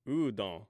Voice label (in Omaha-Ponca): "udon", Omaha language